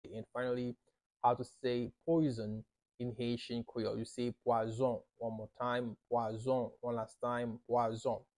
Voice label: "Pwazon" Haitian Creole pronunciation by a native Haitian tutor; How to say Poison in Haitian Creole